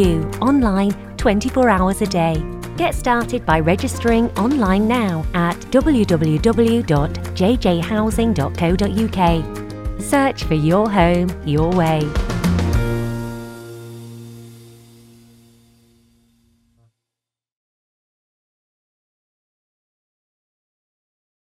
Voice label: Warm, believable, natural Female Voice Over, British; Nothern